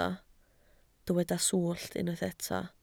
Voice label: "swllt", Welsh